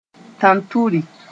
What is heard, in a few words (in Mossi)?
"tãnturi", moore; aardvark